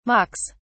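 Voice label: "mocks", British English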